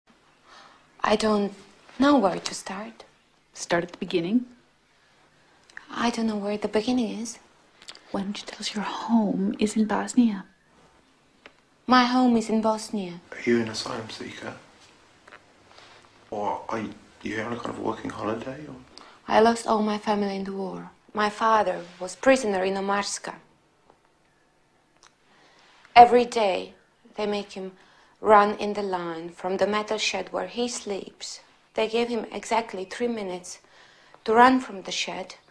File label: reel Englisch; LOFT VOICES